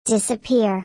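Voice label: "Disappear", English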